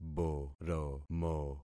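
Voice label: "boromo", concatenated syllables; Affixing experiment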